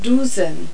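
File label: "douze", French; Virtual Casino: Secret Fantasies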